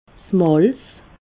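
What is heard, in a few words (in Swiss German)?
"malz", Alsatian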